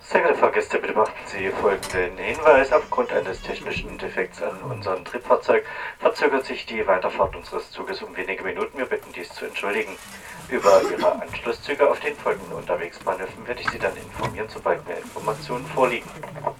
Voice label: Train Delay Message